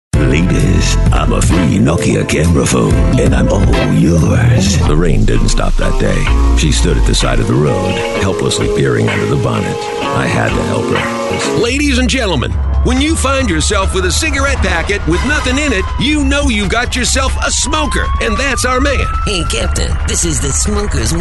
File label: American